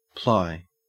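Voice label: "ply", Australian English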